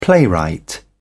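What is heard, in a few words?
"playwright", British English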